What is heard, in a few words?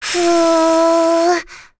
Rehartna Vox Casting, voices heroes en; kingsraid-audio